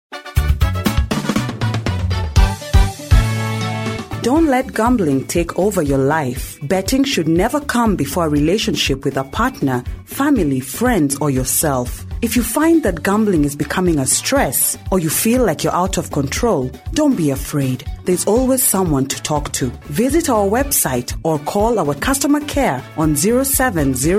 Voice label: Radio Commercial; Lotto

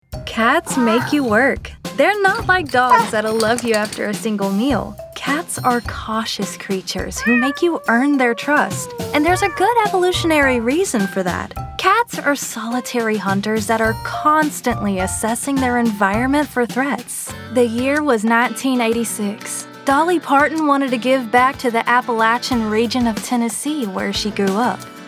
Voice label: Narration

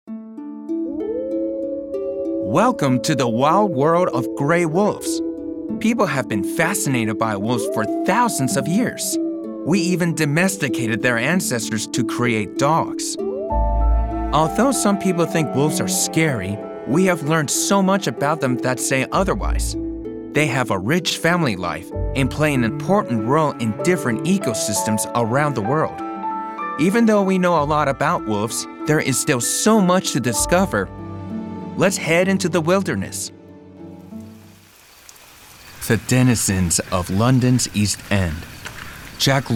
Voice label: Narration

